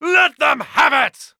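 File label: Uk Positive, British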